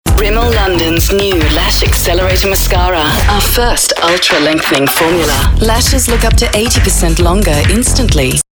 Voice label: Radio Demo